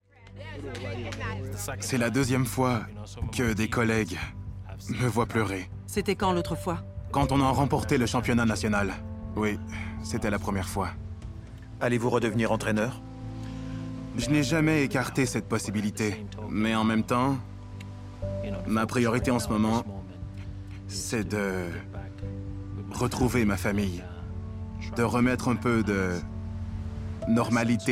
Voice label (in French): Surimpression vocale